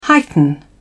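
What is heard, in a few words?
"heighten", British English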